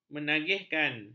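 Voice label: "menagihkan", Malay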